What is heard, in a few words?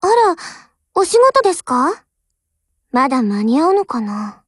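HELLO, Japanese; GF Alarm; UMP45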